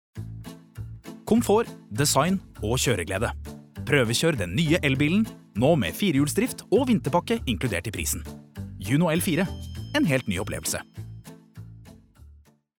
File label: no reklame, norwegian, male